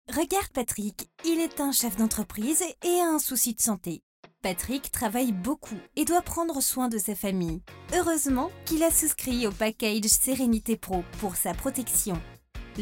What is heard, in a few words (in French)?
Institutionnel Serenite Pro